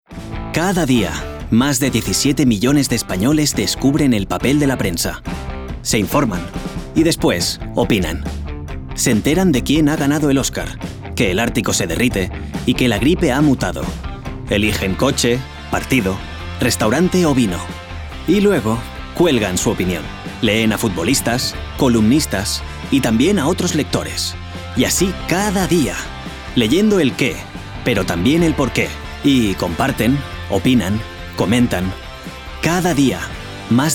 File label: male spanish online comercial